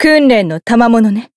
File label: growth, jp